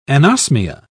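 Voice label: "anosmia", British English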